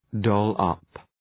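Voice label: "doll-up", English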